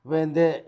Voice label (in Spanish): "aflojado", Zapoteco Magdalena Ocotlan; Adjetivos; INALI; Haspelmath